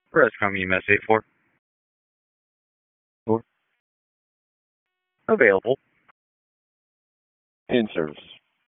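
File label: EMS DISP, radio; wake; p25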